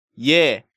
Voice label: "yae", Korean